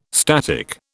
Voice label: "static", British